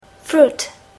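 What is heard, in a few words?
"fruit", English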